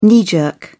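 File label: "knee jerk", English, British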